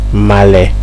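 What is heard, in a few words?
"male", Nigerian Pidgin, male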